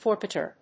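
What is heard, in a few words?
"phoropter", English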